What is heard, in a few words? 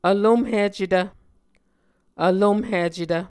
"vlomhicetv", Muscogee